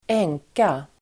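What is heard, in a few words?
"änka", Swedish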